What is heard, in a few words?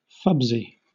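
"fubsy", British English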